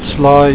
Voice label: "slaai", Afrikaans

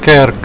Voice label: "kerk", Afrikaans

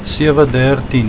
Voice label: "sewe dertien", Afrikaans

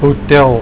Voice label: "hotel", Afrikaans

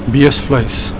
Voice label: "beesvleis", Afrikaans

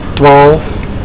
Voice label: "twaalf", Afrikaans

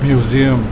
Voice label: "museum", Afrikaans

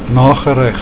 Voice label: "nagereg", Afrikaans

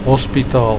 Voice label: "hospitaal", Afrikaans

